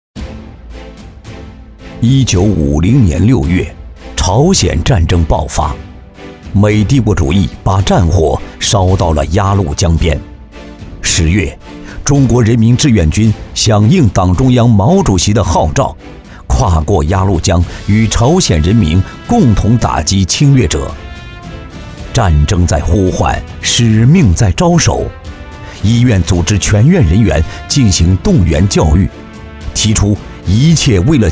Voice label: 纪录片大气严肃历史; 鸭绿江边

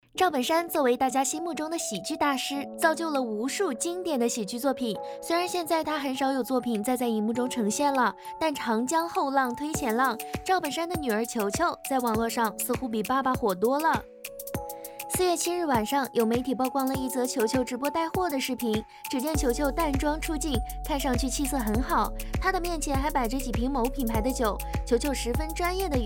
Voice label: 娱乐新闻类

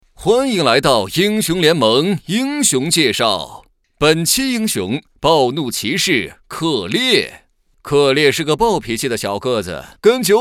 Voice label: 叔 英雄介绍; 英雄联盟

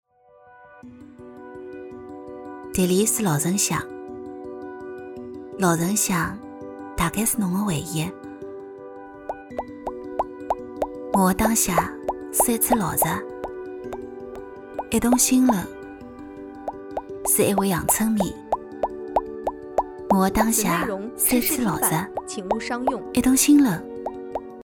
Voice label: 上海方言女

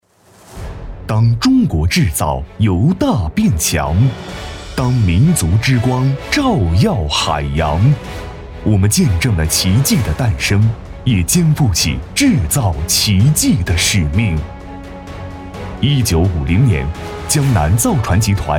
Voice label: 宣传; 江南造船集团

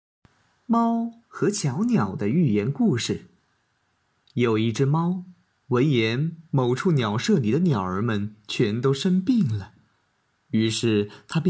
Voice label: 猫和小鸟的寓言故事

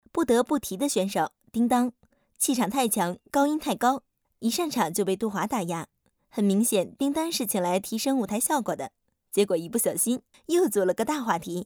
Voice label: 综艺解说

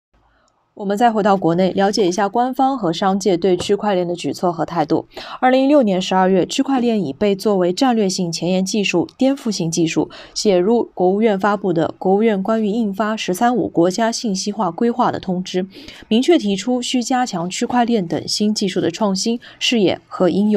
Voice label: 温婉大气

